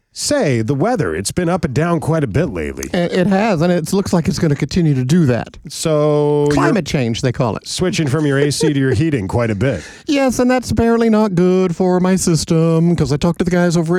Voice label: RADIO AD; nicks plumbing radio ads